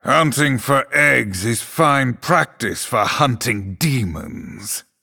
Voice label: Male Night Elf HAPPY NOBLEGARDEN